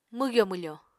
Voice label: "mwgyo-mwfo", Apatani

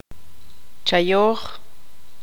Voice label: tzutujil; cortar

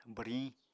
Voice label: "bwriñ", Apatani

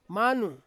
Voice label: "manu", Ansus; house

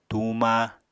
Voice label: "tuuma", Apatani

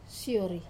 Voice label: "sori" close, Wamesa

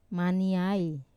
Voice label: "maniai", wasp, Wamesa

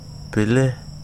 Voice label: "hear", wahgi; lae unitech

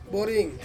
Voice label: "boring", Ansus; five

